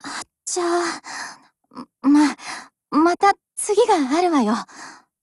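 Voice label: BREAK, Japanese, Voice; GF Alarm; M2HB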